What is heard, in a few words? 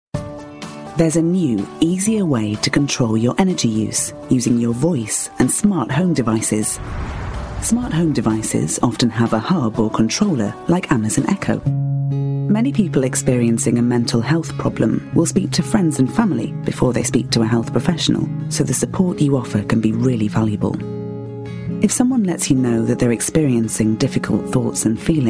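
English UK Narrative; GoLocalise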